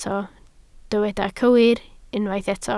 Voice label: "cywir", Welsh

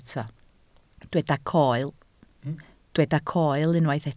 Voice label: "coel", Welsh

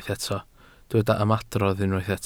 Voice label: "ymadrodd", Welsh

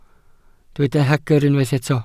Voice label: "hagr", Welsh

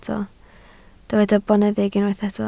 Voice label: "boneddig", Welsh

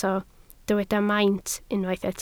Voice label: "maint", Welsh

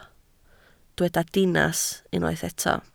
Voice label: "dinas", Welsh

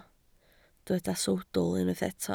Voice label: "sawdl", Welsh

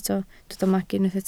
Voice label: "magu", Welsh

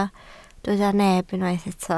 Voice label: "neb", Welsh

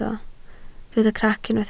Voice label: "crac", Welsh

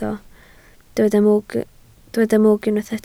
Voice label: "mwg", Welsh

